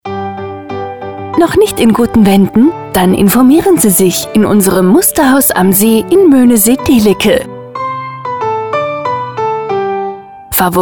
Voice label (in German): Massivhaus Funkspot, Radio; FAVORIT; hellwegradio